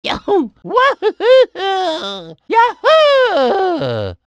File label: tired; mario